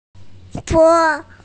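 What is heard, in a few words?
"po1", Mandarin Chinese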